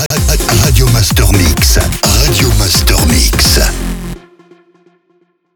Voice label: Sweeper Radio Master MIX - SPEED - MEDIUM; Habillage